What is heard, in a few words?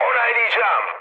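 player killed enemy, mood high, Turkish; War Thunder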